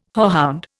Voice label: "hoarhound", Indian

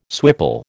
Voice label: "swipple", American

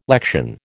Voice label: "lection", American

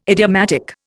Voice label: "idiomatic", Indian

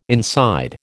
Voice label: "inside", American

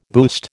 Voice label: "boost", American

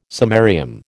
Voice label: "samarium", American

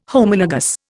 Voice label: "Homologous", Indian

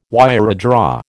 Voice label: "wiredraw", American

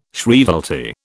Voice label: "shrievalty", British

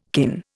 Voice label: "kin", Indian

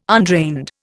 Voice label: "undrained", Indian

